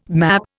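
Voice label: "map", Indian English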